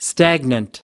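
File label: "stagnant", American English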